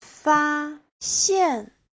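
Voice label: "fāxiàn", Mandarin Chinese; 发现; phát hiện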